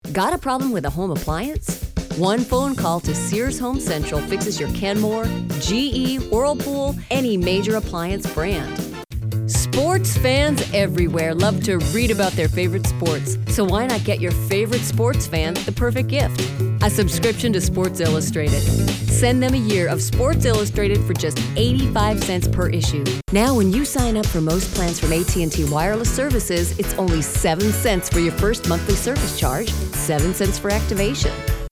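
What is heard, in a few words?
American commercial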